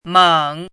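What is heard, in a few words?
"měng", Chinese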